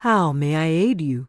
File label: Tauren Female Official NPC Greeting